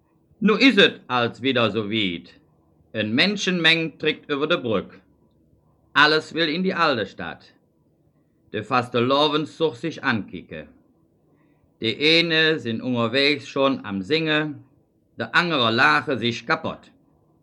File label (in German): Duesseldorf, dialekt; sprache